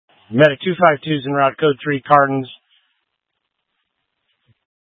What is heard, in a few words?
Fire Departments, scanner